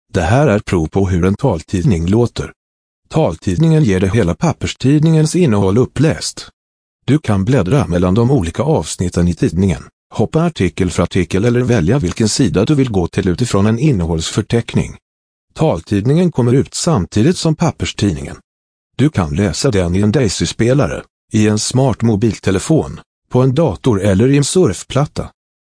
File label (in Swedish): Läsprov taltidning